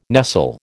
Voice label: "nestle", American